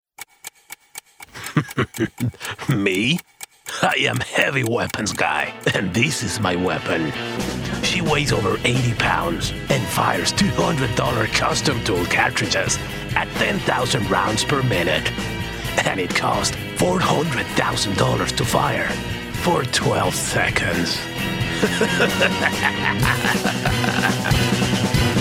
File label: english video games